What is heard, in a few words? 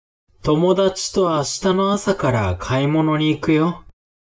man